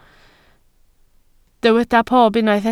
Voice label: "pob", Welsh

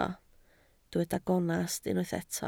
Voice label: "gonest", Welsh

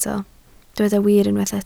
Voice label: "wir", Welsh

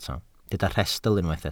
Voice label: "rhestl", Welsh

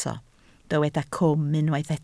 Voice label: "cwm", Welsh

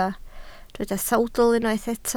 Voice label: "sawdl", Welsh

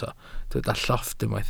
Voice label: "llofft", welsh

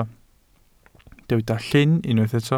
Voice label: "llyn", Welsh

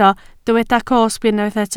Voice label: "cosb", Welsh

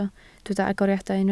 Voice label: "agoriadau", Welsh

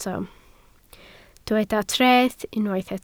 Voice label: "treth", Welsh

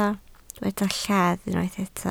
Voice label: "lladd", Welsh

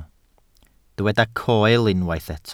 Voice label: "coel", welsh

